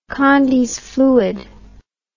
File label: "condys fluid", English